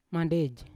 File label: "mandej" three, Yawa